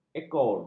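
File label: "ekor", Malay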